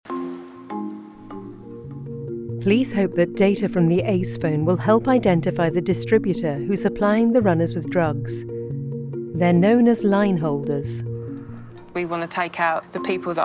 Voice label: vo music; Panorama